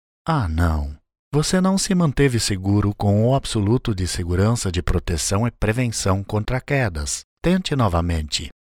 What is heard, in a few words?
portuguese brazilian real estate; Voice over talent, with a warm, clear and friendly voice